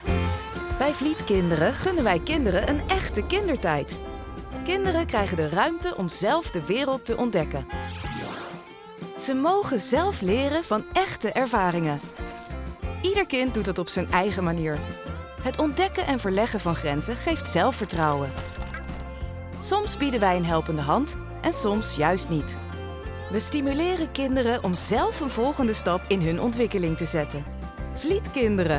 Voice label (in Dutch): Corporate pedagogische Visie; Vlietkinderen